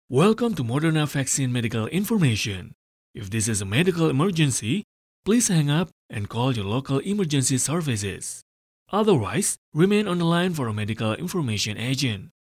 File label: male indonesian voice over english